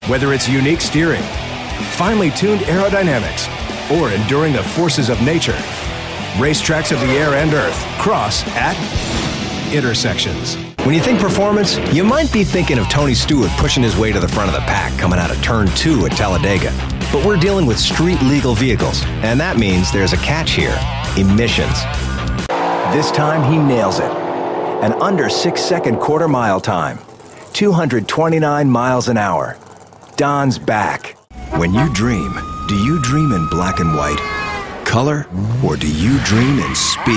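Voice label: US English, general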